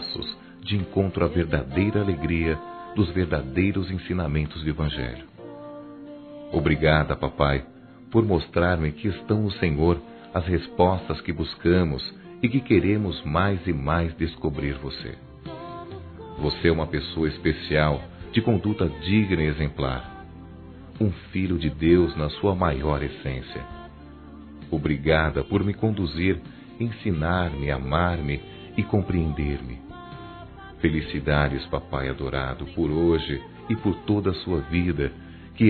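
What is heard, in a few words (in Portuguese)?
Trilha homenagem ao pai, masculino; Telemensagens; Gospel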